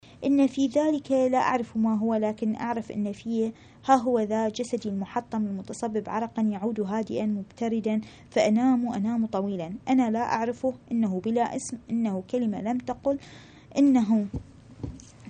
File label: arabic